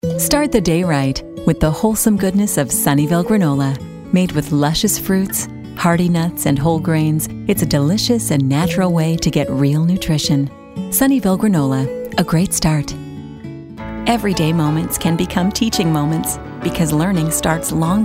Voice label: commercial vo